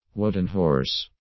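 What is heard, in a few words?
"woden horse", English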